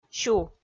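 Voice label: "сю", Komi, female; kpv audio dict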